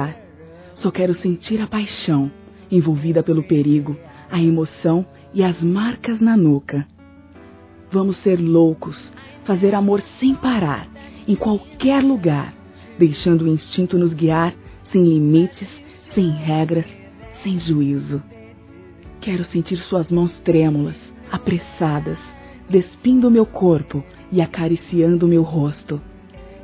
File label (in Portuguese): NOSSAS LOUCURAS, fem; Telemensagens; Sensuais